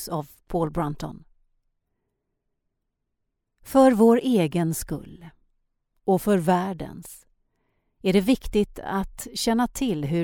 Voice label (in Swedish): meditation; om karma